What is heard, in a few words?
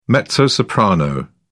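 "mezzo-soprano", British English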